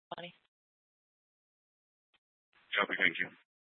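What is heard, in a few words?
Police Department Dispatch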